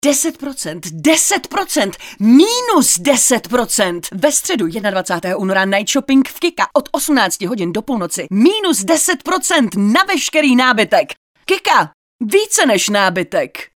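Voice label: female Czech commercial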